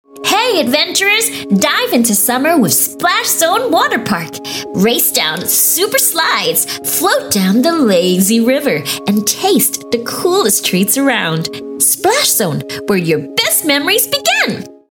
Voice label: Asian English; SplashZone Waterpark